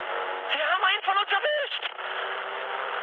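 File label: German aircraft destroyed mood high, speech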